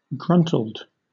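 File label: "gruntled" British English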